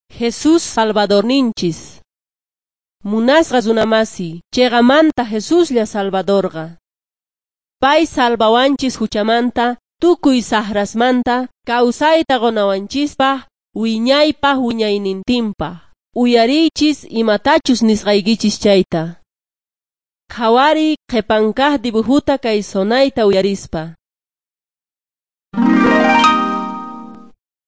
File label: jesus lord and saviour quechua s bolivian cochabamba